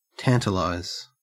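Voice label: "tantalize", Australian English; pronunciation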